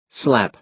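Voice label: "slap", American English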